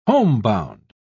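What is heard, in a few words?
"homebound", British English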